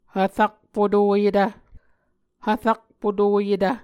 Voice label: "hvrakpotoyetv", Muscogee

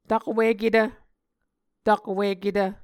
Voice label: "takwiketv", Muscogee

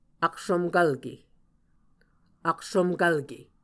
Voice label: "aksomkvlke", muscogee

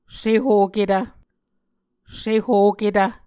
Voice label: "sehoketv", Muscogee

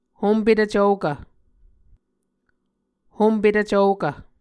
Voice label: "hompetv cvokv", muscogee

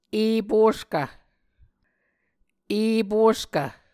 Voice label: "eposkv", Muscogee

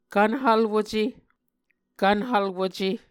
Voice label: "kvnhvlwuce", Muscogee

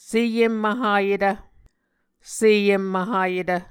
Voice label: "seemvhayetv", Muscogee

